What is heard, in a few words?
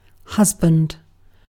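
"husband", British English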